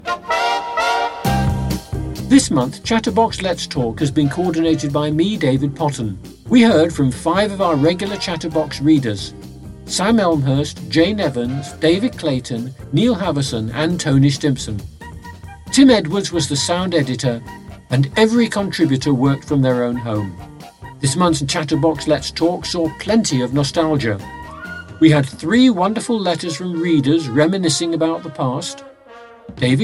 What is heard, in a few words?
Closing Announcement